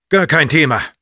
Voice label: positive vocalization, de